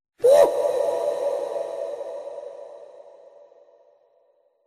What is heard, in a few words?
gnome with reverb